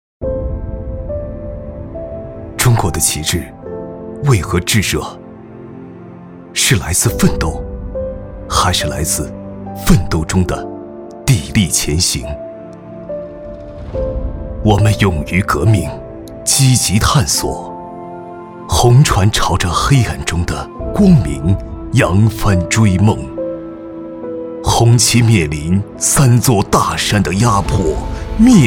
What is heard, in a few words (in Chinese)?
男, 走心; 中国旗帜